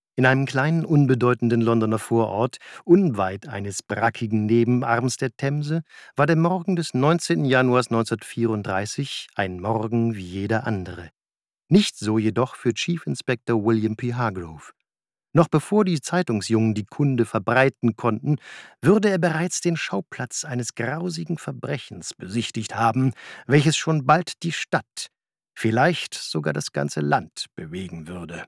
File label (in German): Hoerbuch